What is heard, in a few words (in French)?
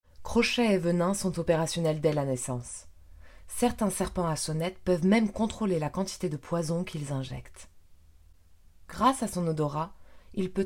documentaire; voice; french